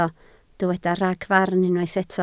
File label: "ragfarn", Welsh